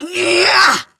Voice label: Attack Effort, Battle; Warframe; Grineer Catbrow Handler; Battle Barks

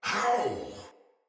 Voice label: Damage Low Chance, Barks Battle Soldier; Sinecure Soldier

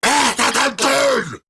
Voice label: Player Spotted Grineer Ghoul Sargeant, en; Grineer Ghoul Drill Sargeant; Battle Barks

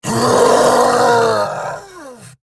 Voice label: Death, en; Warframe; Eidolon Grineer; Battle Barks

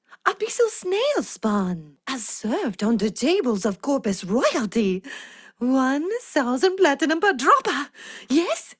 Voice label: Ost Fem en; Cetus

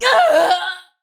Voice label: Death Ranged, Battle Barks; Tau; Tau Dax

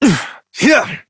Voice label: Horse Start Adult, Dialog; Duviri

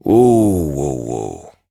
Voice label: Horse Stop Walk Adult; Duviri

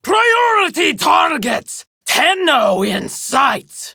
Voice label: Enemy Spotted Female

